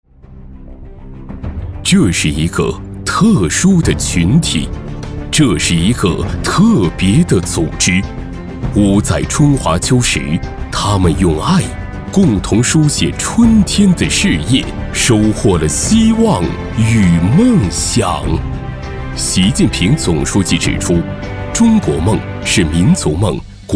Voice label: 男 专题; 托举幸福梦--绽放新时代

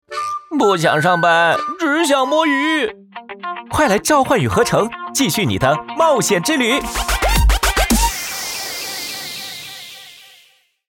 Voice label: 男 游戏; 召唤与合成

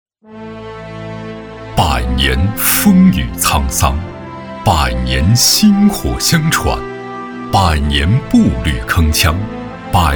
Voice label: 男 专题 学校 片 大气历史; 诸暨市草塔中学校史馆

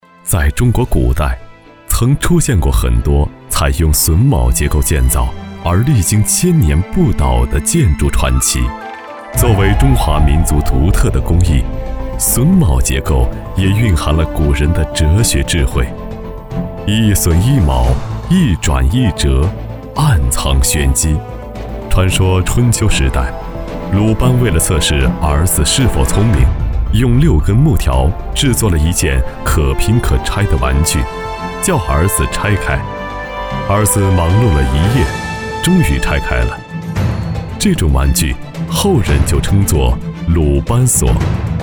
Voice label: 男 纪录片 榫卯

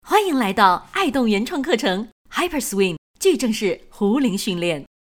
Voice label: 女 健身课程 活力四射

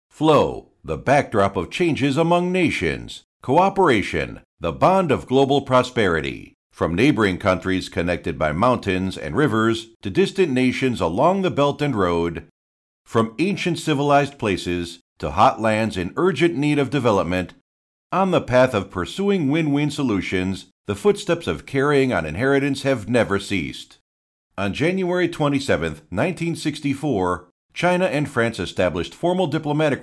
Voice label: 成熟磁性

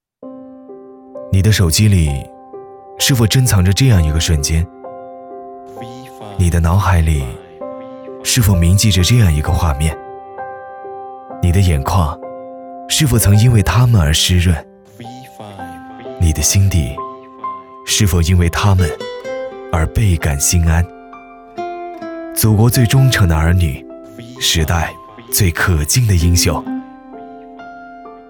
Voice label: 男 素人旁白 手机

